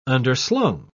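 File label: "underslung", English, British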